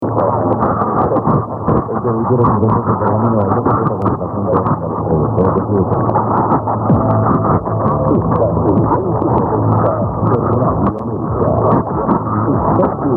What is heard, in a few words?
radio americas argentina